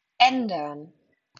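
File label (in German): "ändern", German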